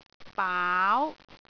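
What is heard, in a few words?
"baau2", Cantonese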